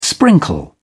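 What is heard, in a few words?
"sprinkle", English, British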